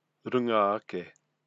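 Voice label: "runga ake", Māori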